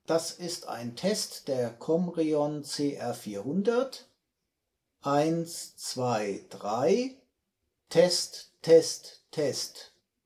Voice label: CR400 test, Richtmikrofone Test; Geräte Klasse: All in One Systeme; COMREON® Compactbar™ CR400 Richtmikrofone Test

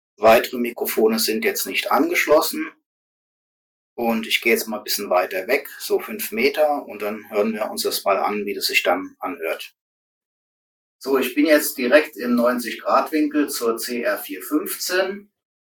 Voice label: Mikrofone vorne und 5m wireless mic mono; cr415; Test aus großer Entfernung; mikrofon test sprachqualitaet

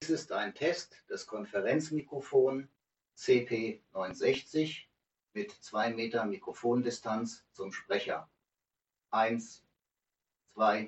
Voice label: Yealink CP960, Mikrofon Test Sprachqualität, 2 Meter Abstand zum Sprecher; Yealink CP960 mit Bluetooth Verbindung getestet

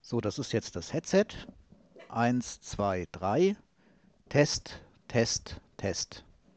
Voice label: Funk Headset; Funk Headset und Funk Empfänger; mikrofon test sprachqualitaet

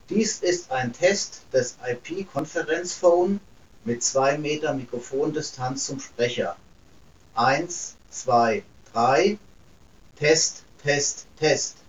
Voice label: Test 2m ip conference phone usb kabel, mikrofon test sprachqualitaet; IP Conference Phone mit USB Kabel Verbindung getestet; 2 Meter Abstand zum Sprecher

